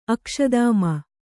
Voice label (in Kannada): "ಅಕ್ಷದಾಮ", Kannada